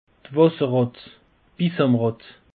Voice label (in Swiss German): "wasserratt", alsace; peche